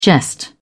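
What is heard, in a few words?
"jest", British English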